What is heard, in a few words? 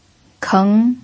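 "keng", second tone, Mandarin Chinese pinyin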